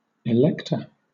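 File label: "elector", British English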